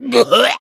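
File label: damaged, Japanese; cyrus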